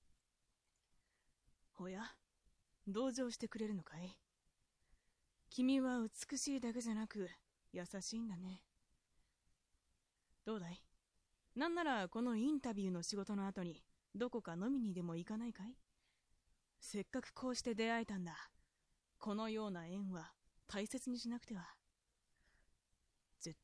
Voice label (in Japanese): dansei